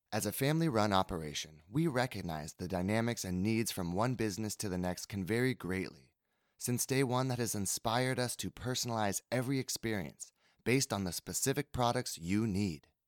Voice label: Oil Advertisement; Maverik Oils